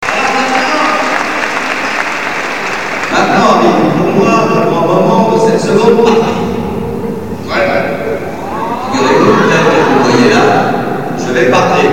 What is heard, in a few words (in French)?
Intermede parle; Lyon